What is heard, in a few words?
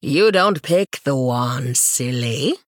Female Human Play